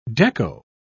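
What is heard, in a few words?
"dekko", British English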